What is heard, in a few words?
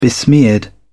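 "besmeared", British English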